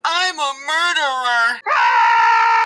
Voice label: im a murderer aaaaaaaaaaaaah

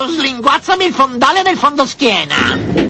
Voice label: some italian sounding quote

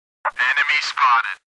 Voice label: jingle radio enemy spotted; counter-strike

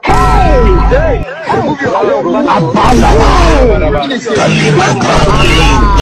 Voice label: nigerian church radio

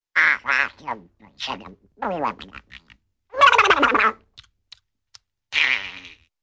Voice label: duck grumbling; donald duck

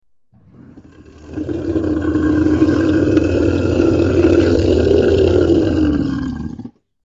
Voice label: monster raor

